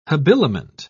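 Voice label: "habiliment", British English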